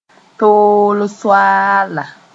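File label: "tooll soaala", Mooré; recipient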